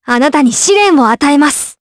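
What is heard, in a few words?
Skill, Japanese; kingsraid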